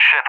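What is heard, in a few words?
"ship" English, speech; UK; Target; DCS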